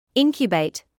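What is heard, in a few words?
"incubate" English, British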